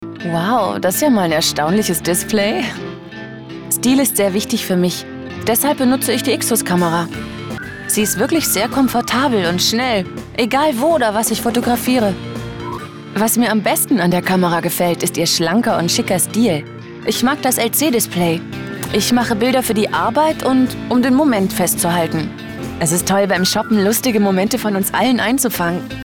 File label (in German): sehr natuerlich; Ixus